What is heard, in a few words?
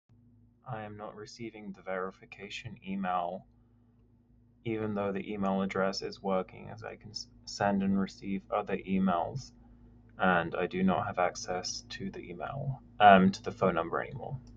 voice message